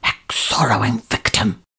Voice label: exuro invictum whisper, incantations older female fire spells